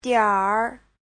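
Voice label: "diǎnr", Mandarin Chinese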